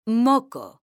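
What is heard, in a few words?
"moco", Spanish, female; mekanta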